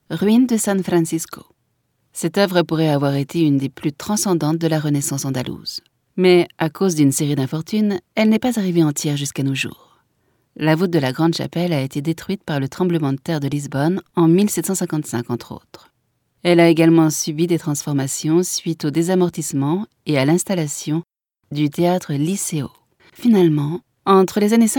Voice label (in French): audioguia, frances; audioguia baeza